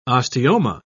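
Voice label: "osteoma", British English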